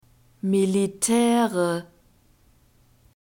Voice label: "militaere", german

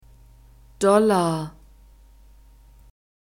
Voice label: "dollar", German; masculine

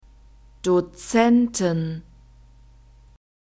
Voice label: "dozenten", German

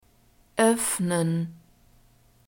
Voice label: "oeffnen", german; verbs present tense regular

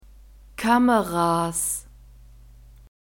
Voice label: "kameras", German

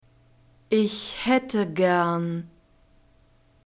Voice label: "ich haette gern", German

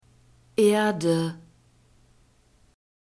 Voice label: "erde", German; nouns gender feminine

